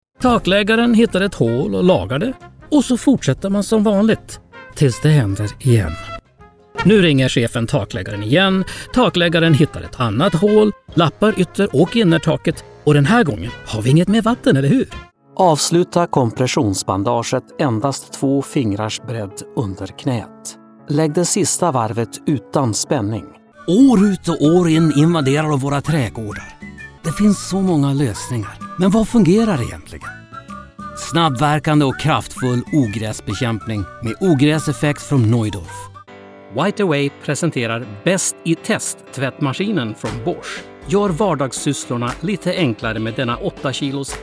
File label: explainer video swe